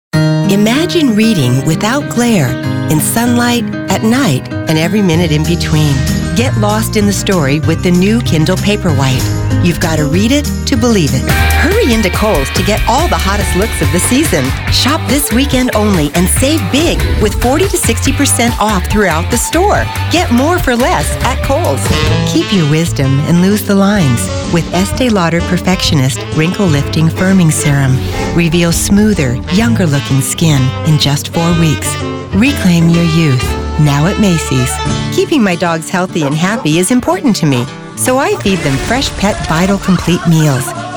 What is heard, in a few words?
Commercials